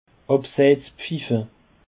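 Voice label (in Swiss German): "abseitspfiffe", Alsatian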